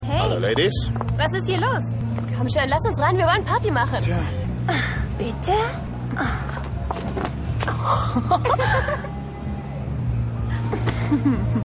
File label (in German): 2 Frauen; Rescue Me